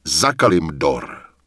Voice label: Night Elf Male cant equip skill; error messages; wowpreklad; czwow

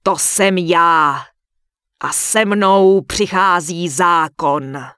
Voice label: Night Elf Female Sentinel Greeting; NPC; wowpreklad; czwow

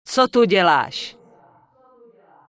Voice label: Night Elf Female Priestess Greeting; NPC; wowpreklad; czwow

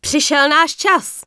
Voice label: Night Elf Female loot too far; Error Messages; wowpreklad; czwow

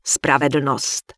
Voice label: Human Female Warrior NPC Farewell; wowpreklad; czwow

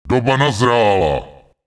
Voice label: Night Elf Male Official NPC Farewell; wowpreklad; czwow

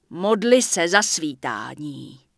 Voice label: Night Elf Female Sentinel NPC Vendor; wowpreklad; czwow

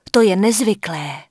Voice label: Human Female Official NPC Vendor; wowpreklad; czwow

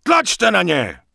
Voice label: Night Elf Male cant use item; error messages; wowpreklad; czwow

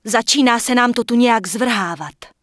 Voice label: Human Female Official NPC Pissed; wowpreklad; czwow

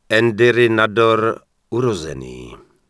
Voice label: Human Male Flirt; wowpreklad; czwow; HumanVocalMale

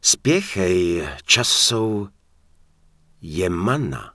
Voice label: Human Male item cooldown; Error Messages; wowpreklad; czwow; MaleErrorMessages

